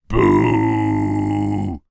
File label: male middle aged says boo; zapsplat